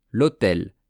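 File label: "lhotel", French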